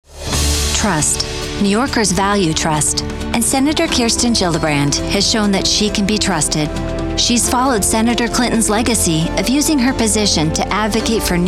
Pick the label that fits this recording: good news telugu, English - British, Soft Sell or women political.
women political